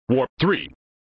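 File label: "Warp Three", male voice; Worf; flipper; sttng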